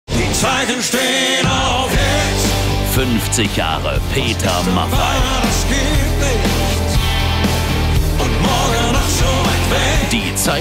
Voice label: Lebendig

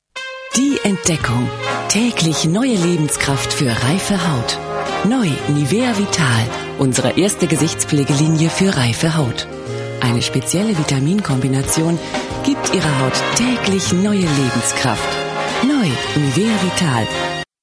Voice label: Fremdtake Dynamisch

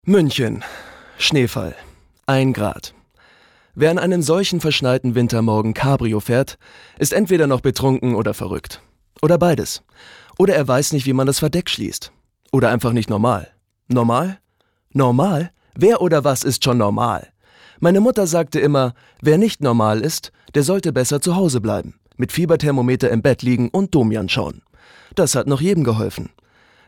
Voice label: Schauspiel Freundlich

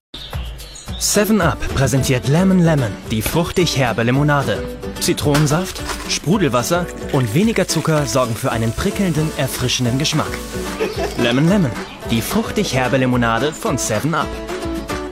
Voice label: Freundlich

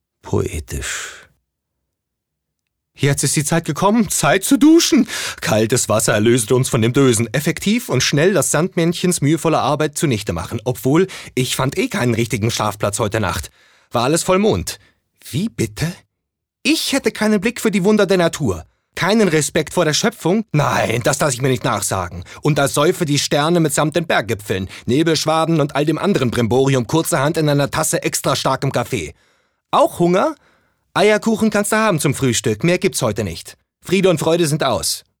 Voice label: Schauspiel, Variabel

